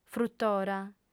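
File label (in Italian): "frutora", lingua sarda, female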